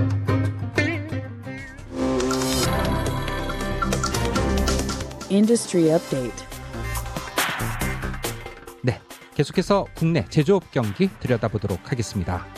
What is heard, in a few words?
korean; Manufacturing can expand into 2019; SBS Korean